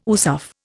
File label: "USAF", Indian